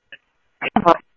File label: Central Sheriff Central, scanner audio